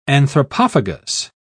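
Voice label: "anthropophagus", English, British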